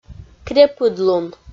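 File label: "крепыдлун", Komi, female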